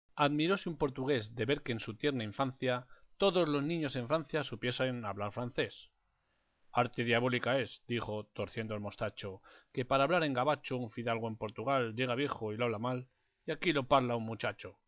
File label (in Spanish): portugues; poesia